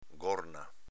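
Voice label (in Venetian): "gorna", Veneto dialect; s.f. grondaia, pluviale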